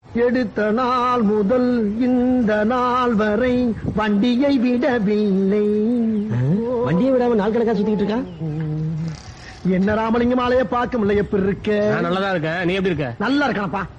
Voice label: funny